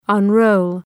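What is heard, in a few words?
"unroll", English; {ʌn’rəʋl}; (Ρήμα)● ακτυλίσσω● ξετυλίγω● αναπτύσσω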